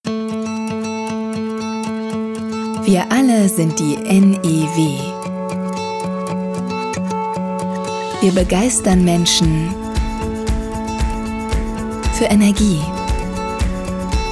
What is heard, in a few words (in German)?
Imagefilm